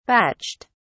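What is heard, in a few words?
"batched", British English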